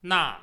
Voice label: "那", Chinese